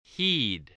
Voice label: "heed", English, spelling